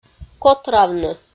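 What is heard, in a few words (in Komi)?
"котравны", Komi-Zyrian, female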